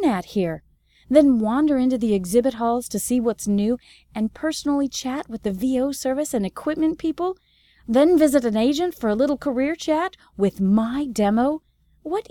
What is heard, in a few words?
voice over virtual promo